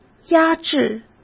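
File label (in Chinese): "压制", Mandarin Chinese; hsk; chinese-flashcards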